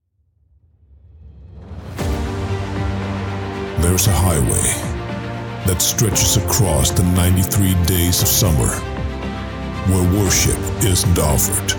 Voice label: Commercial; Dodge Demons